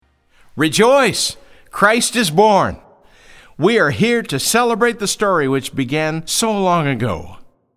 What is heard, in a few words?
narration